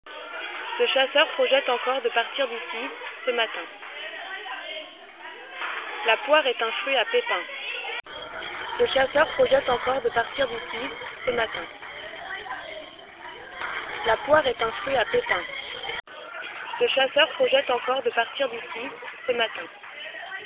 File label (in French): cantine MIC GSM transcodage